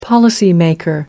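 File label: "policymaker", British English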